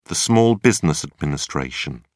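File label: "small business administration", English, British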